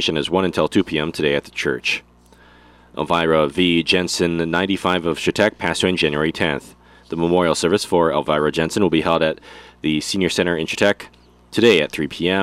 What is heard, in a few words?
Funeral Announcements